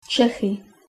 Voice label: bohemia, czech